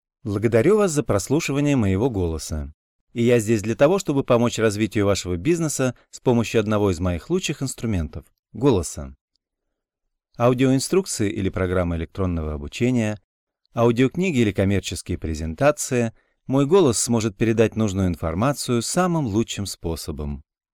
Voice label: male russian voice over talent narrative

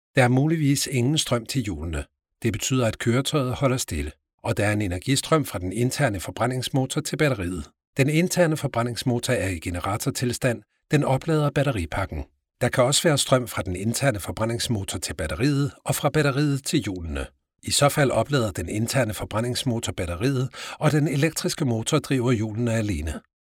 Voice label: male danish voice over narrative

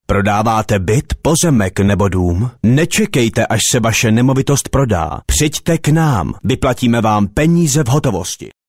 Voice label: male czech voice over narrative

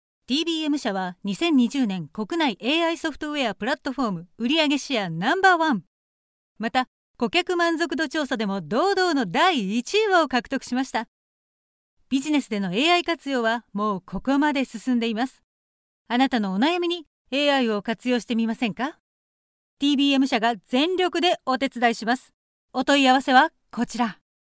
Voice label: female japanese voice over sales

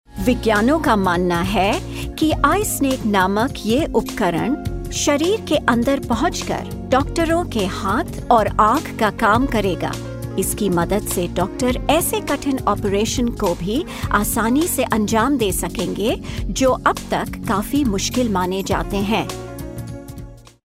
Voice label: female hindi voice over upbeat

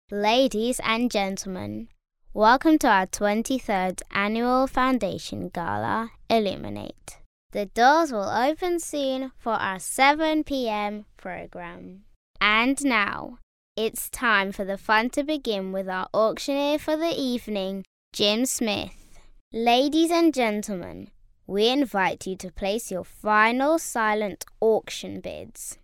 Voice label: girl british voice over upbeat, english british

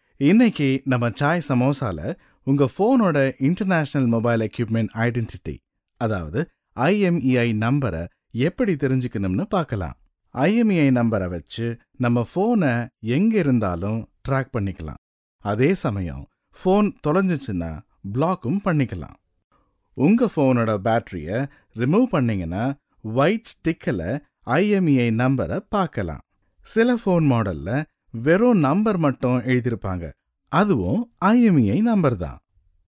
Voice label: male tamil voice over talent narrative

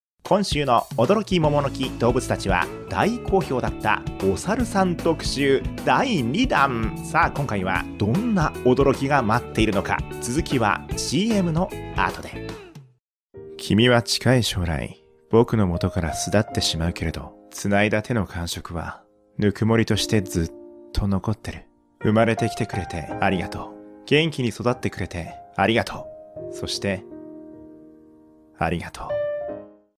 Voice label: male japanese voice over talent sales

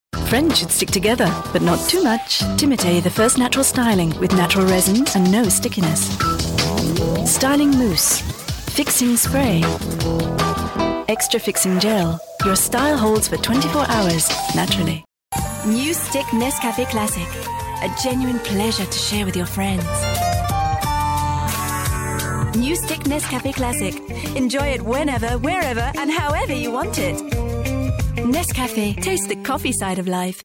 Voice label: female british voice over sales; english british

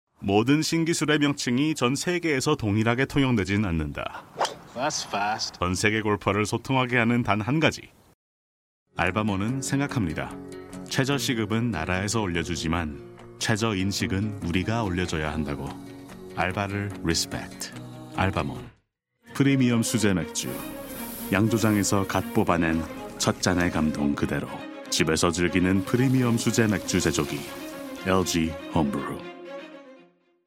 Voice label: male korean voice over talent sales

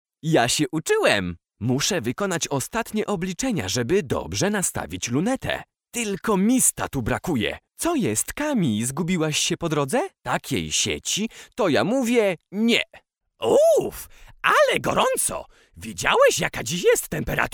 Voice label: male polish voice over talent character

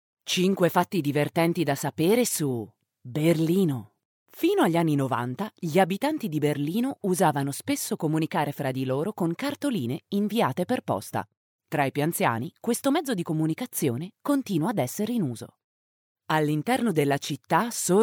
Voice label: female italian voice over narrative

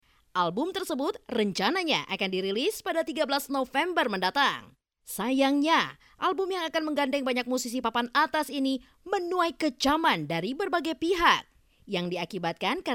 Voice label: female indonesian voice over narrative

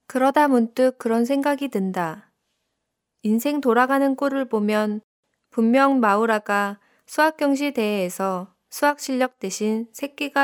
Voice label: female korean voice over narrative

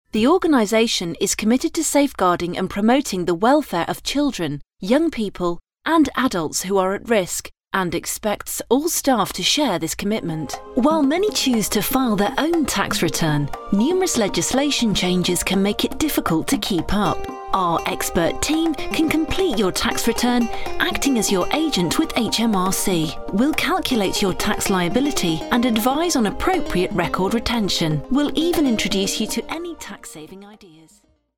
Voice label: female british voice over narrative; english british

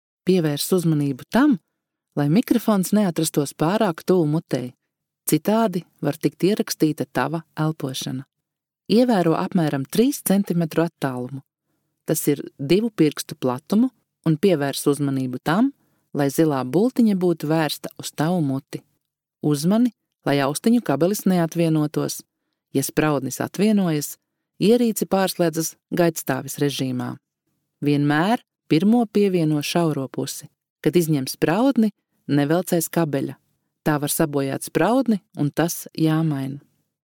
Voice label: female latvian voice over narrative

